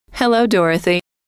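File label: "hello", female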